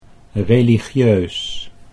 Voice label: "religieus", Dutch